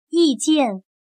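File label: "意见", Chinese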